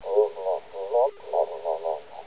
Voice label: mumble